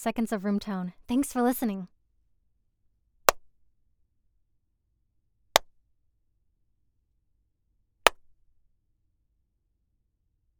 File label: Raw Studio Sample